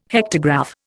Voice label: "hectograph", Indian